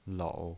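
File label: "lou", pinyin, Mandarin Chinese, third tone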